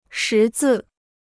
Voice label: "识字", Chinese

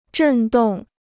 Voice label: "振动", Chinese

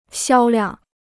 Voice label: "销量", Chinese

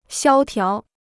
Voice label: "萧条", Chinese

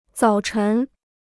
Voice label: "早晨", Chinese

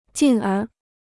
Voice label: "进而", Chinese

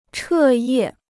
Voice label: "彻夜", Chinese

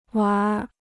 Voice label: "玩儿", Chinese

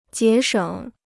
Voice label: "节省", Chinese

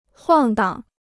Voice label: "晃荡", Chinese

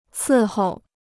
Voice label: "伺候", Chinese

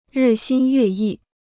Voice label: "日新月异", Chinese

